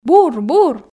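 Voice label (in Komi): "бур бур", Komi, female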